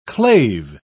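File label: "clave", English, uk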